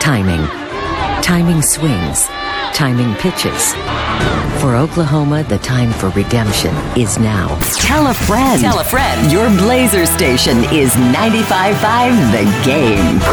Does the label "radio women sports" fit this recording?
Yes